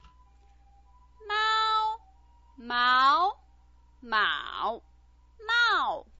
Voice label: "mao", Chinese pinyin

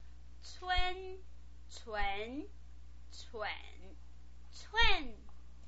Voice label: "cun", Chinese pinyin

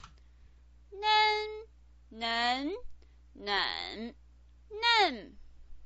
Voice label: "nen", Chinese pinyin

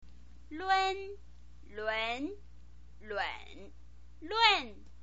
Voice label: "lun", chinese pinyin

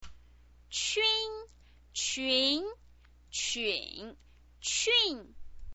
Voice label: "qun", chinese pinyin

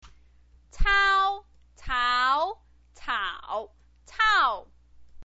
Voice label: "cao", chinese pinyin

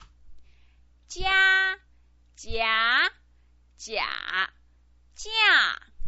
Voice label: "jia", Chinese pinyin

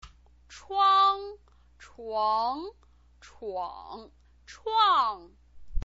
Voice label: "chuang", chinese pinyin

